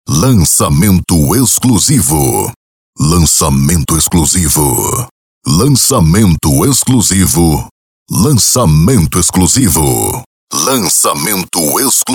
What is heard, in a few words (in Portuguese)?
banco de vozes banco de voz locutor vinheta